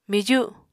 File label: "miju", Apatani